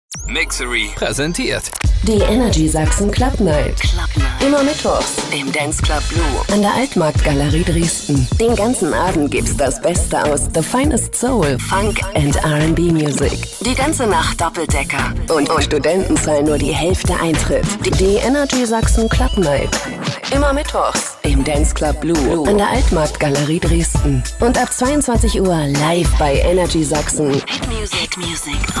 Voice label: Stationvoice Cool; Radio Energy